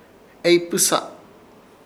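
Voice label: "Eypasɨsa", Chamacoco word, elogio bueno; Ishir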